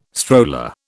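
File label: "stroller", British English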